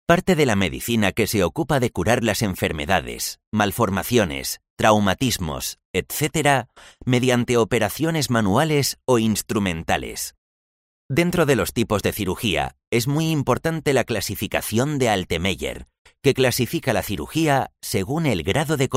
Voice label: MALE, audiobooks